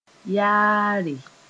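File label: "yaare", Mooré; small market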